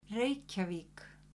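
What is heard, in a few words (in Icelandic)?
"Reykjavík", Icelandic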